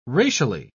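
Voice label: "racially", British English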